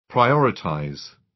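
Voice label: "prioritize", British; Space, the planets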